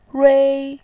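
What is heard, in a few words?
"rui", Mandarin Chinese, first tone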